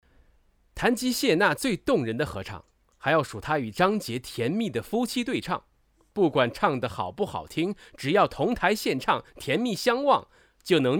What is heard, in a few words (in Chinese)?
演绎类